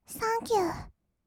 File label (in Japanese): "サンキュー", kawaii voice; 1200 kawaii voice!!; 色々なワンショット